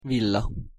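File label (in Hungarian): "villa" Hungarian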